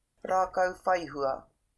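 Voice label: "rākau whai hua", Māori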